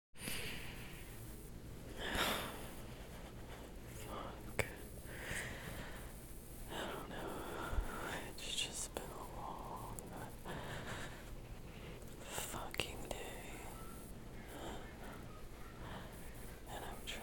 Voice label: rant, trying to be quiet, almost whisper; F4A; Short, rant rub; short, wet, angry rub